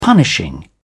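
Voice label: "punishing", British English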